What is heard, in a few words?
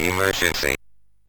MOS6581R3, Electronic Speech Systems; Kennedy Approach